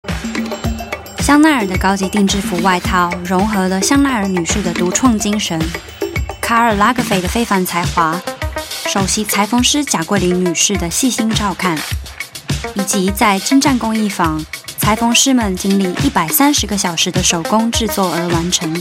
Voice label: Chinese Mandarin commercial